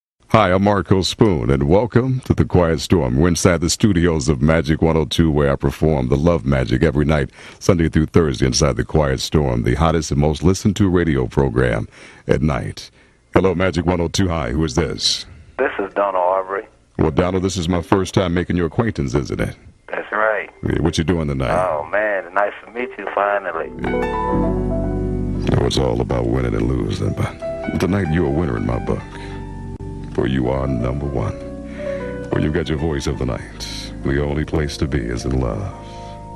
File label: Air Check